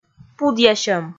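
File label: "пудъясьӧм", Komi, female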